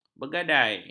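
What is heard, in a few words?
"bergadai", Malay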